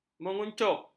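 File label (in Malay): "menguncup", Malay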